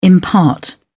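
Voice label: "impart", British English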